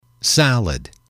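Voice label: "salad", English, male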